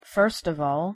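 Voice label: "first of all", English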